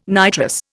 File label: "nitrous", Indian